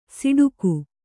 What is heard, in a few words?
"ಸಿಡುಕು", kannada